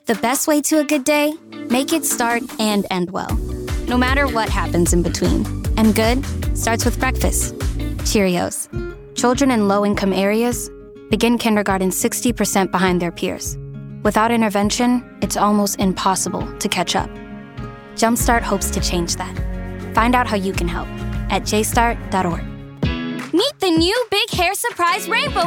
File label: women commercial; Sunshine-like, youthful, engaging, and thoughtful.